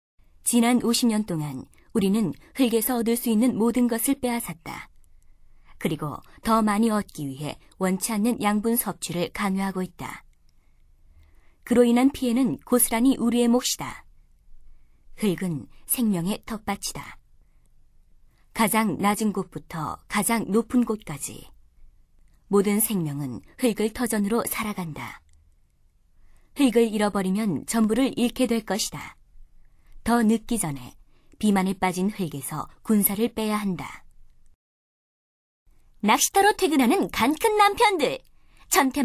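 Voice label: Korean female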